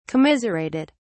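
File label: "commiserated", English, British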